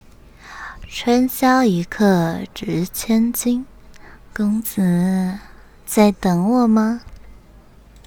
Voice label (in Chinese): 御姐音